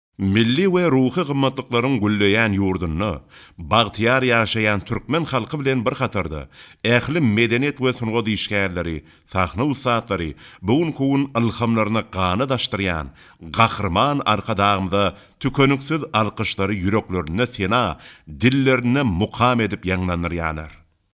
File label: Turkmen Male